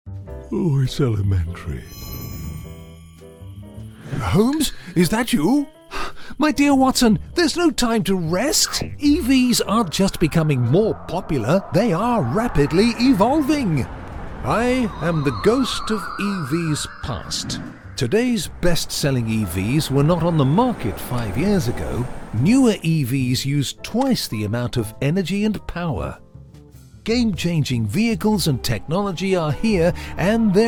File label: English British animation